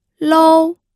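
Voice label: "lou", first tone, pinyin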